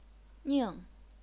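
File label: "ning", fourth tone, chinese pinyin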